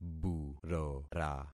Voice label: "burora", concat syllables; Affixing experiment

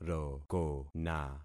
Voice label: "rokona", concat syllables; Affixing experiment

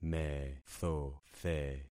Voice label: "methothe", concat syllables; Affixing experiment

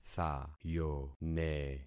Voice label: "thayone", concat syllables; Affixing experiment

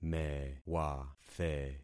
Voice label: "mewathe", concat syllables; Affixing experiment

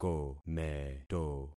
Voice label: "kometo", concat syllables; Affixing experiment

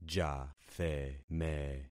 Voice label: "jatheme", concat syllables; Affixing experiment

